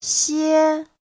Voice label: "xie1", Chinese; 些

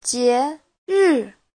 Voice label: "jie2ri4", chinese; 节日; (danh từ) ngày lễ; Giáo trình chuẩn HSK3

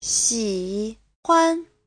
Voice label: "xi3 huan5", Chinese

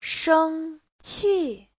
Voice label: "sheng1qi4", Chinese

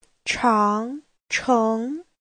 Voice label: "chang2cheng2", Chinese; 长城; Trường Thành

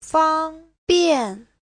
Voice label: "fang1 bian4", chinese; Giáo trình Hán ngữ

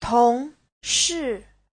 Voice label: "tong2shi4", Chinese; 同事; (danh từ) đồng nghiệp; Giáo trình chuẩn HSK3

